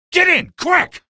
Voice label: get aboard, male; video games; npc; source engine; episodic; episode 1